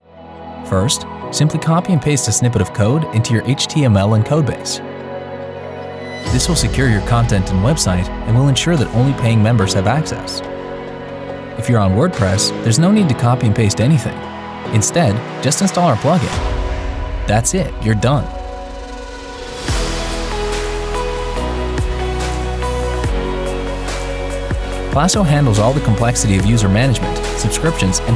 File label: voice actor; VoiceBunny; English - England - Male